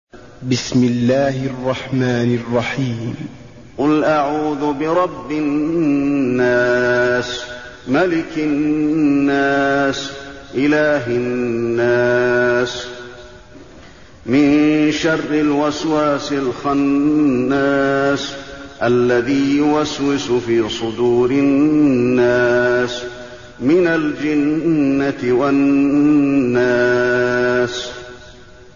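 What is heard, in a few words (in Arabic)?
الناس, تلاوة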